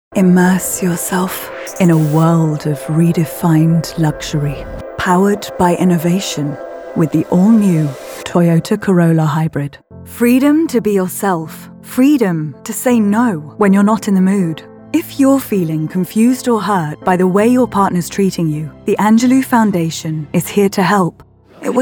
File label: Commercial